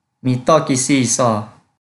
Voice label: Kelantan-Pattani Malay